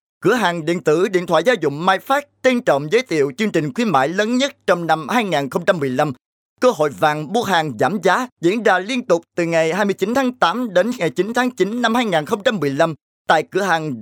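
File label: Vietnamese Vietnam male sales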